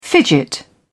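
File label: "fidget", English, British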